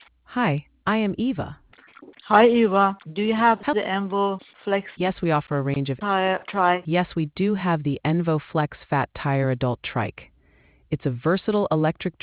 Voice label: conversation